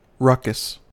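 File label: "ruckus", American English; pronunciations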